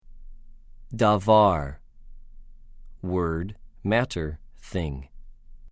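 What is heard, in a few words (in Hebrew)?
"דָּבָר", Hebrew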